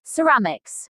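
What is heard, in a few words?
"ceramics", English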